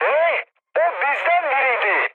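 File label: Turkish player killed ally, mood med, speech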